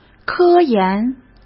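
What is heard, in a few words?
"科研", Mandarin Chinese; chinese flashcards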